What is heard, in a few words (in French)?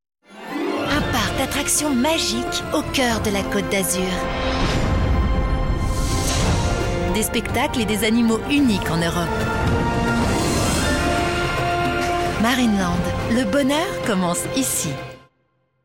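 tonique ensoleillee, voix off féminine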